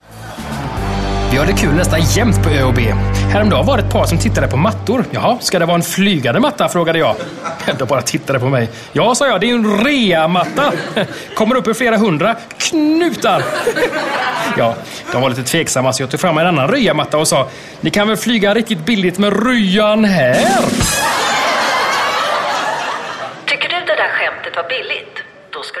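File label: Swedish; Scandinavian; Male; 30s-40s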